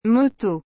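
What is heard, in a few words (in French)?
"moto", French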